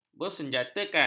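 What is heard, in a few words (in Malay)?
"bersenjatakan", Malay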